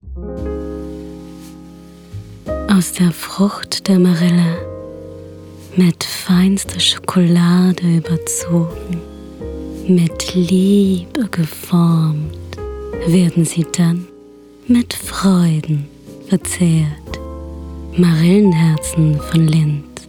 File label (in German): Marillenherzen mit Musik